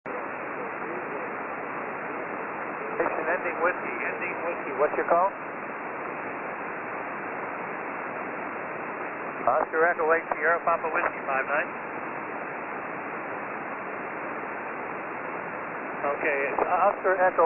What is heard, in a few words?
17m SSB